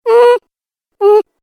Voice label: Cartoon Game Voice Bored Sounds; Cartoon Voice Sound Effects